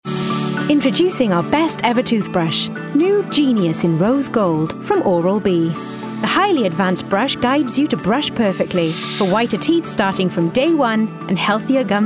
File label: COMMERCIAL